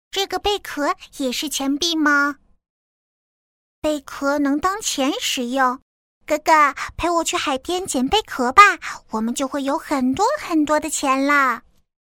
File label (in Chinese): 女童; 狮子妹妹